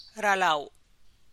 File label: "rabis duu", Teotitlán del Valle Zapotec; all kinds